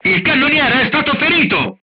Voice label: voice message gunlayer wounded, crew tanks italian